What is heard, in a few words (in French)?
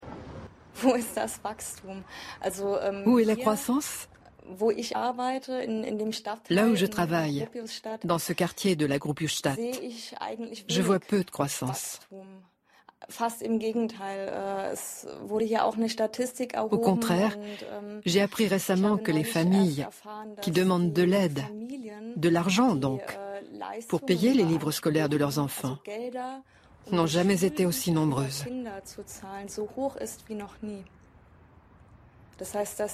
Voice over; Déchiffrage